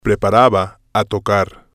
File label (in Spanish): "preparaba Tocar", spanish